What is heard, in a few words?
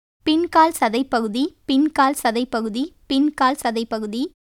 Tamil; பிங்கால்சதைப்பகுதி; piṅkālcataippakuti; [pin̠kɐːlsəd̪'əippəxud̪'i]; calf; 腓